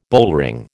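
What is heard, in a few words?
"bullring", American English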